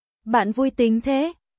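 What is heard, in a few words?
Vietnamese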